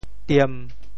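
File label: "tiem1", Teochew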